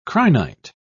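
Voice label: "crinite", British English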